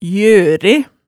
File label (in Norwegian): "ljøre", hemsedalsmaalet, tale